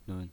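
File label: "nun" neutral tone, pinyin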